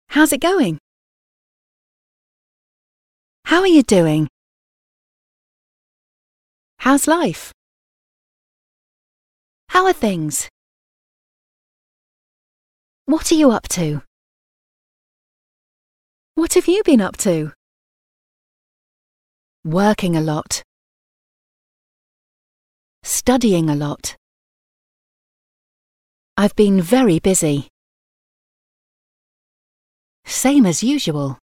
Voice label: English Sentences